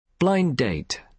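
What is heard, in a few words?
"blind date", British English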